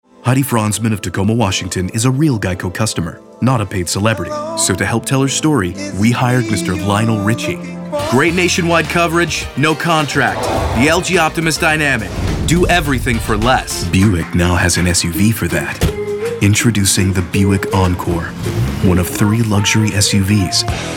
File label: Commercial